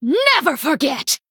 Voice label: Female Blood Elf Attack

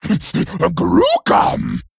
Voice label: Male Hozen Attack

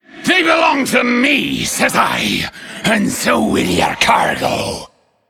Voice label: Male Undead; Looter; Mission2 Start

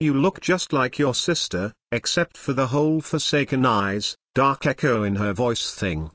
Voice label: Male Tauren; Guff; Scabbs; Mission9

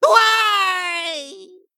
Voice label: Male Kobold Death

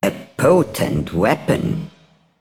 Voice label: Female Broker Recruit Large Minion

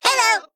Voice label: Male Mech ERROR FULL MINIONS

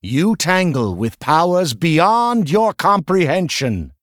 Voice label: Male Human Hero Power

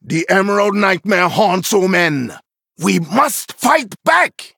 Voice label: Male Dryad Picked

